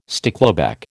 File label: "stickleback", American